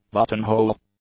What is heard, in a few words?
"buttonhole", English; nouns